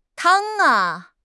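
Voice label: "tang1", Chinese; HSK4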